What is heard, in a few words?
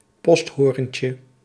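"posthorentje", Dutch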